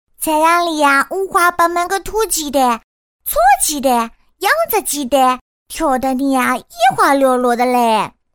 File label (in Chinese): 杭州方言 女 杭州话 童声; 方言配音